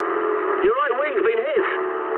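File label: English right wing mood med; War Thunder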